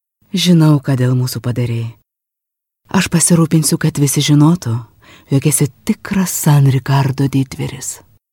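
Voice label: lithuanian female, voice samples commercial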